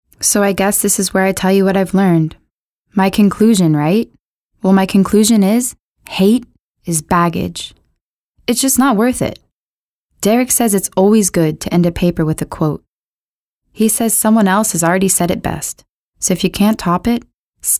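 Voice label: English US Narration Voice Overs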